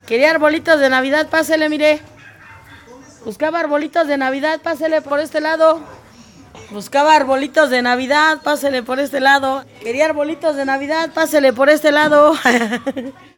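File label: human voice street seller mexico selling christmas pine spanish; felix blume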